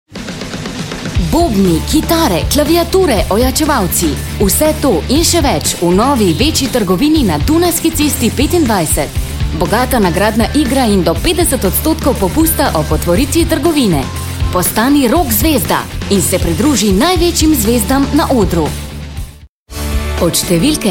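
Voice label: slovenian female commercial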